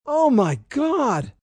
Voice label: Oh My God, reaction, negative, male; Exclamation